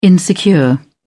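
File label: "insecure", British English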